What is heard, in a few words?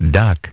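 "duck", English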